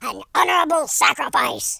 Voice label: "kamikaze", speech